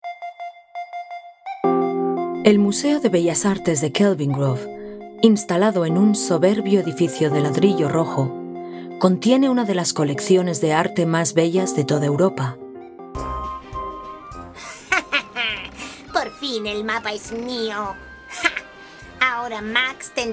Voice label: Commercial Spanish